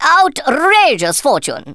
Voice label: bummer, Speech Thespian; Worms Armageddon

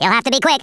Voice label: "hurry", US Sports

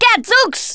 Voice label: "what the", Thespian; Worms Armageddon

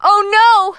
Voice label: "Take cover", Smooth Babe; Worms Armageddon

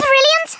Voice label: "brilliant", Scouser

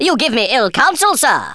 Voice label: stupid, Speech Thespian; Worms Armageddon

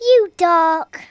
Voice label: stupid, Kidz; Worms Armageddon

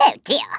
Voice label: bummer, Stiff Upper Lip

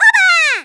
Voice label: "victory", Portuguese; Worms Armageddon

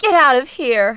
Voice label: "Go away", Smooth Babe; Worms Armageddon

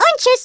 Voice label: "OH DEAR", German; Worms Armageddon

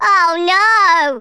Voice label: "incoming", Brummie; Worms Armageddon

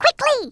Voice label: "Hurry", Brooklyn; Worms Armageddon

